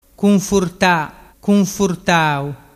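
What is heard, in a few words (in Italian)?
"cunfurtatau", Vernazzese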